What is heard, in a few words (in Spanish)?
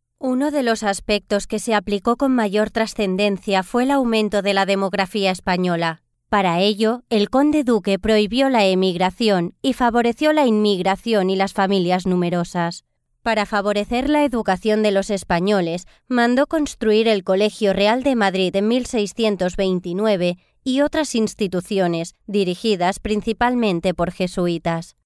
locutora spanish voiceover